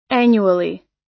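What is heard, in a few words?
"annually", American English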